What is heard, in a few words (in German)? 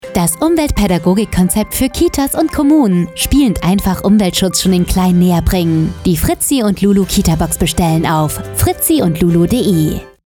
Funkspot; KlimaKinder GbR